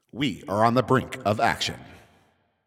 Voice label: 10 Seconds To Game Start, Announcer